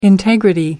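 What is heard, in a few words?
"integrity", English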